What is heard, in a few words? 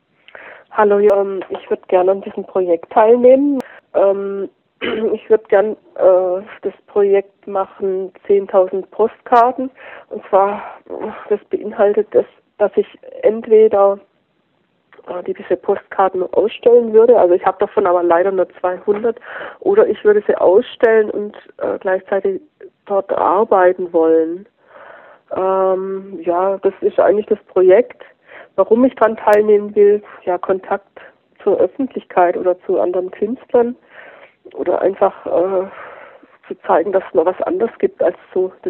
Voicemail